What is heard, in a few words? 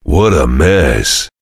chitchat; svencoop; voice commands; Duke